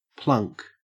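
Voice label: "plunk", Australian English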